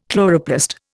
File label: "chloroplast", Indian